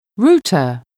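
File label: "router", English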